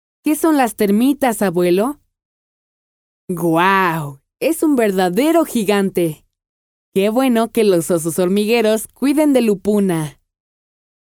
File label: child spanish neutral voice locutor nino espanol neutro; Latin American child voices